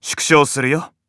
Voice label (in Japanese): 縮小, PCシステムボイス